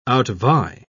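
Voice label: "outvie", English, British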